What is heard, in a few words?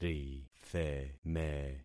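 "ritheme", concatenated syllables; Affixing experiment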